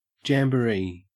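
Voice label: "jamboree", Australian English; pronunciations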